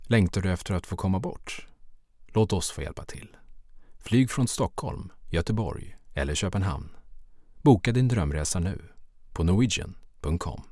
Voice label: Voice Over Swedish Norwegian Commercial

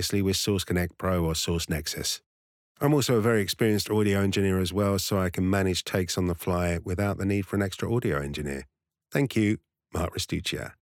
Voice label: Home Studio Sample

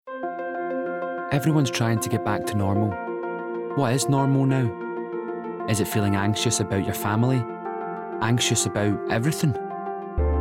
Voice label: Commercial

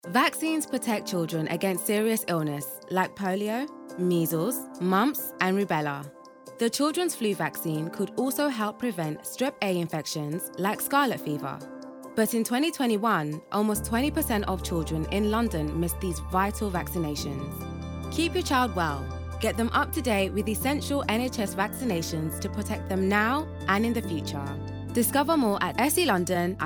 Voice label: Documentary; NHS

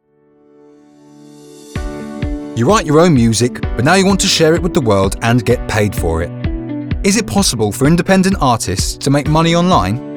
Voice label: corporate

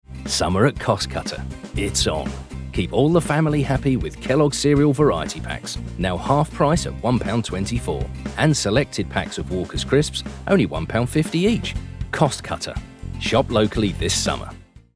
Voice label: Idents

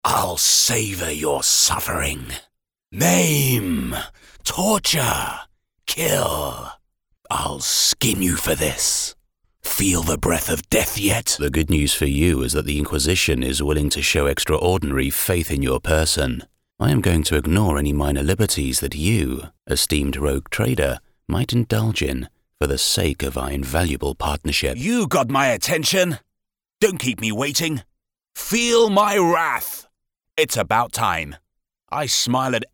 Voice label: Character

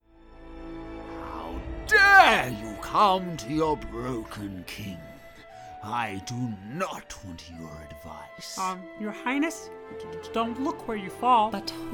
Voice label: Animation

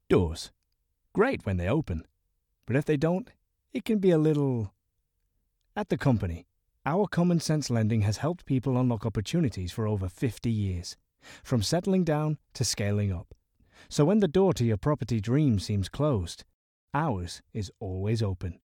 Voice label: Lending Bank Commercial; Regional

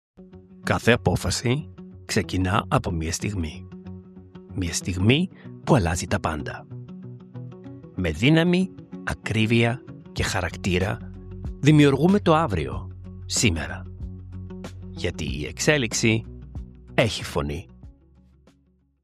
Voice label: GREEK commercial corporate

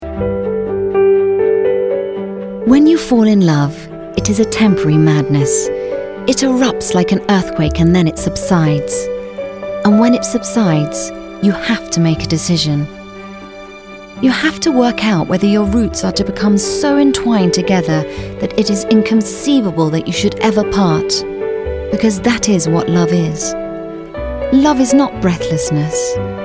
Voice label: Narrative Film Introduction

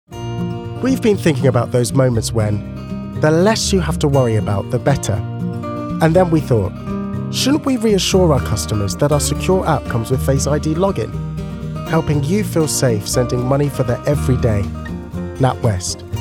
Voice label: Commercial

